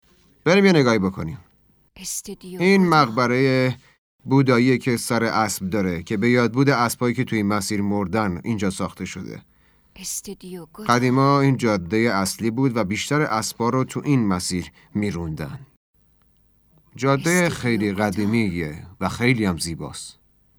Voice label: dub